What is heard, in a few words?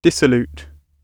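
"dissolute", English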